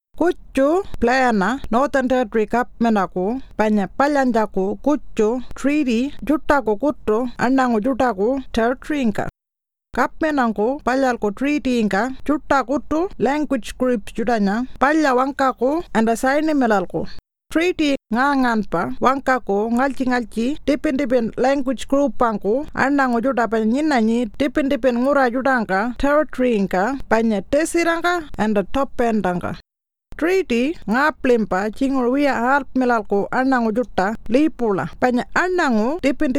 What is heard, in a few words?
Pintupi Luritja; One Overarching Treaty